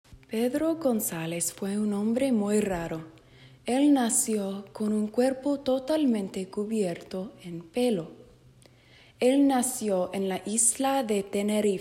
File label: spanish fluency; spanish III